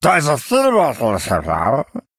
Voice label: Male Murloc Greetings; en